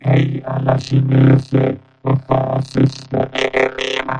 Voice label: breakdancer robot; Robotic or Stuttery or Spooky

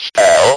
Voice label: speak and spell "SPELL"

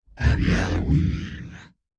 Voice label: happy halloween, Robotic or Stuttery or Spooky

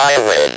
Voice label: speak and spell "I WIN"

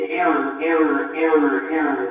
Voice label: error error error, Robotic or Stuttery or Spooky

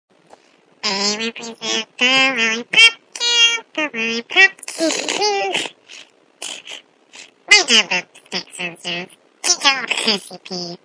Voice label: weirdo high pitched vox stuff; Robotic or Stuttery or Spooky

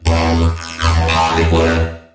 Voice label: robot from hollywood

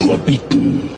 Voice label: what de doo, Robotic or Stuttery or Spooky; dark vaider

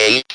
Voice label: "EIGHT", speak and spell; NUMBERS

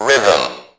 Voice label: robot rythm

